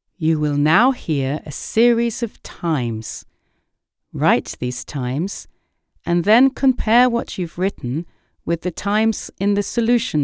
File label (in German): sprachkurs; sprecher